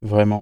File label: male "vraiment" flattened, the French word "vraiment" - "really", recorded by a single male speaker; CLEESE